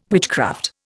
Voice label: "witchcraft", Indian